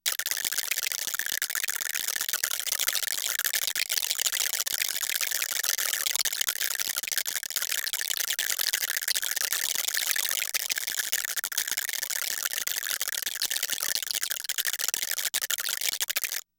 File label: Chatterbug, Vocals Humanoid; Sony Pictures Sound Effects Series Volumes 1-10; Sony Creative Software